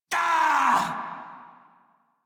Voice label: Death, Male; Dialog; Warframe; Railjack Crew; Red Veil